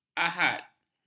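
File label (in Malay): "ahad", Malay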